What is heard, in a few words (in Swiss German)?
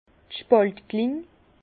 "spaltkling", Bas Rhin; vin; rabot pour douves